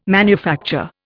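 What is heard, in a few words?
"manufacture", Indian English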